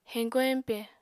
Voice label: "heñko-heñpe", apatani